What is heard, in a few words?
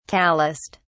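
"callused", British English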